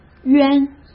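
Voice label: "冤", Mandarin Chinese; hsk; chinese-flashcards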